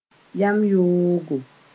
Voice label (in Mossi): "yam-yoogo", moore